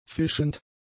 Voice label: "fischend", German; adjectives